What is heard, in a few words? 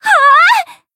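damaged, Japanese; millecha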